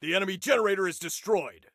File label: Enemy Generator, Soldier Male